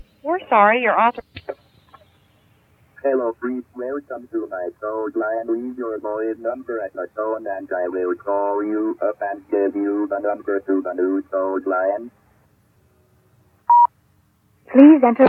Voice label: computerized code line; PHREAKYBOYS; conferences